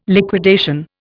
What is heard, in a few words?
"liquidation", Indian